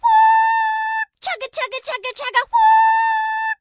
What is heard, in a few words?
Gnome Female Choo Choo